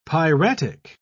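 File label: "piratic", British English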